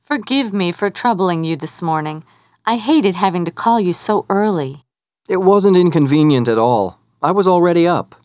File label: dialog